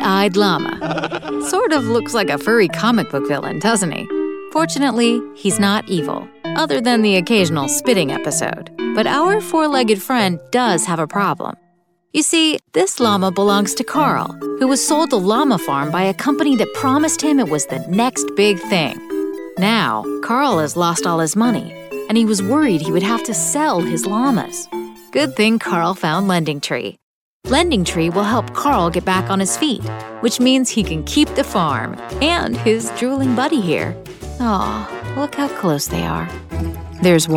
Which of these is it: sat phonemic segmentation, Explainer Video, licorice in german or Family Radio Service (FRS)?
Explainer Video